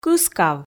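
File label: "кыскав", Komi, female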